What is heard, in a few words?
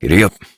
Horse Start Adult, Dialog; Duviri